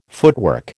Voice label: "footwork", American